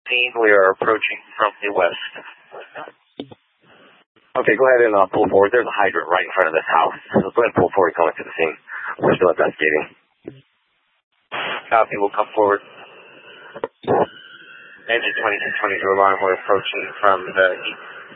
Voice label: Fire Dpts VHF 154.800 Patch, scanner

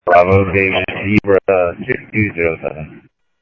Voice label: Police, scanner audio trunk; H-Scottsdale

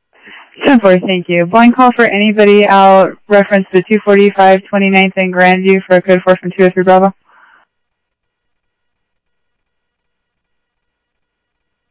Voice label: Phoenix PD, scanner audio

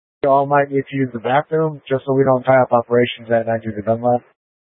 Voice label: Light Rail Emergency, scanner

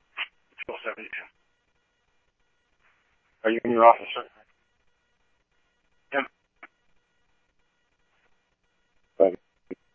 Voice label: Police, scanner

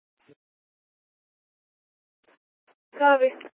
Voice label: Court Security Dispatch, scanner

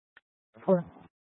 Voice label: Sheriffs Office, scanner; Maricopa County; D1 Southeast; Chandler-Mesa Simulcast

